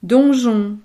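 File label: "donjon", French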